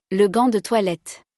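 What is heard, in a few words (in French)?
"Le gant de toilette", French; vocabulaire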